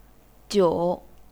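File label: "jiu", Chinese, third tone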